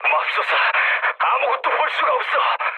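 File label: Korean searchlight mood low, speech; War Thunder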